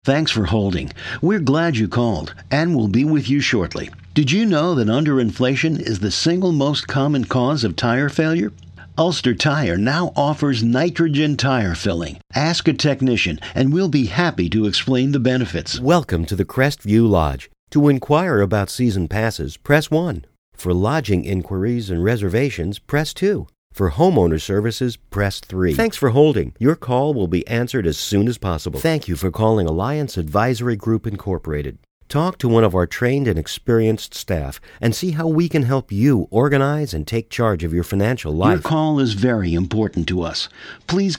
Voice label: Voice Mail